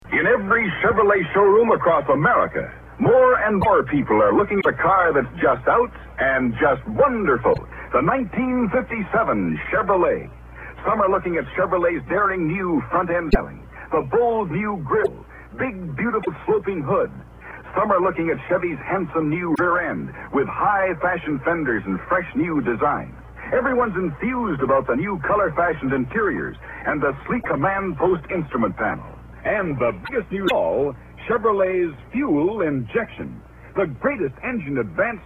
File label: Vintage Commercials; 1957 Chevrolet